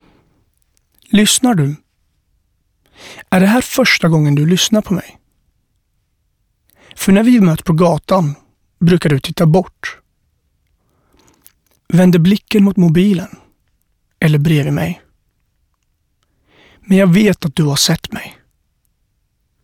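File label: Swedish male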